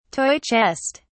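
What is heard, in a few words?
"toy chest", British English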